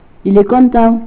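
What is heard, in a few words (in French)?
"content", French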